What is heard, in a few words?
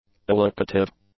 "allocative", English; adjectives